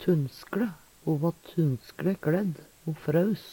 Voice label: "tunnskLe", Numedalsmål; Ho va tunnskLe kLædd. Ho fraus.; Adverb

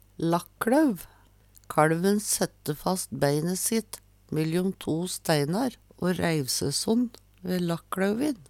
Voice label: lakklauv, Numedalsmål

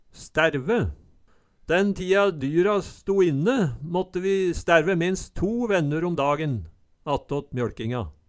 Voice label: staerve, Numedalsmål

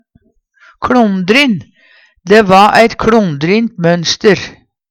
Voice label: kLondrin, Numedalsmål; Dæ va eit kLondrint mønster.; Adjektiv

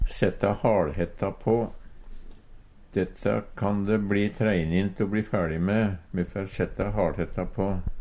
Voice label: halhetta, Numedalsmål; setta haLhetta på; Eksempel på bruk Detta kan de blia treinint å bli ferdig me, men me fær setta haLhetta på.

